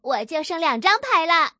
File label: left, female